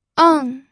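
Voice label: "eng", pinyin, fourth tone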